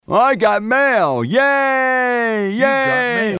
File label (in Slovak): sms hlásky en